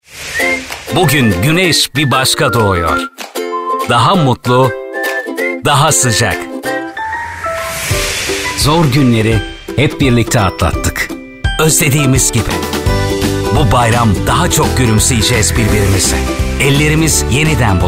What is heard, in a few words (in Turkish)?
erkek, türkçe seslendirme